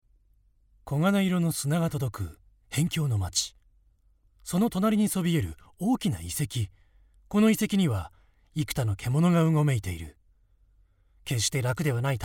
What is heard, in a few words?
male